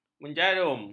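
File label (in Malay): "menjarum", Malay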